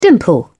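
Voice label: "dimple", British English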